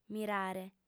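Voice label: "mirare", female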